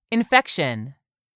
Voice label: "infection", English; 3000 words